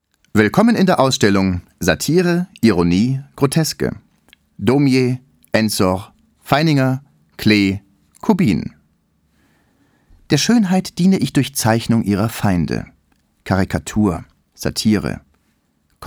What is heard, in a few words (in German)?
Audioguide; Zentrum Paul Klee 2013